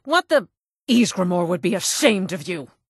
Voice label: Reactions Conju, Female Commoner; Skyrim Special Edition; NPCs React To Necromancy

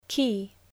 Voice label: "key", English

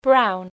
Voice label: "brown", English

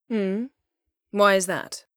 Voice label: female

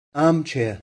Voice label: "armchair", English

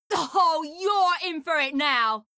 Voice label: Female; Skyrim Special Edition; Dawnguard Beleval

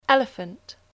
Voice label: "Elephant", English

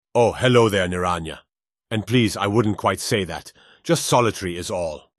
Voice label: Dialogue, Male Nord Commander; Skyrim Special Edition; Windhelm Dialogue Expansion

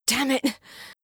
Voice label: Female; Skyrim Special Edition; Dawnguard Beleval

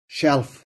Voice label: "FUR shelf", English spelling

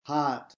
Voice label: "heart", English

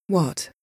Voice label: female; Skyrim Special Edition; UVNPC Assigned; Solitude; Endarie

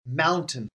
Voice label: "mountain", English

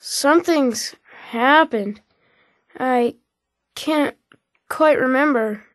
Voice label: Creepy Kid, Male Child; Skyrim Special Edition; The Whispering Door - Quest Expansion

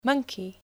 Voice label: "Monkey", English spelling

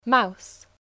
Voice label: "Mouse", English spelling

